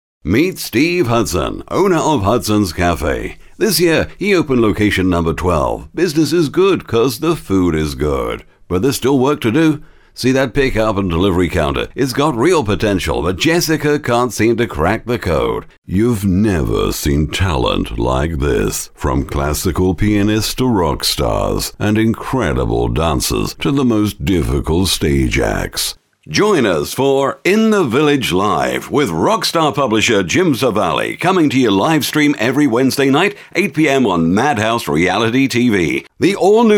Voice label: MID-ATLANTIC